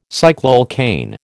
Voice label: "cycloalkane", American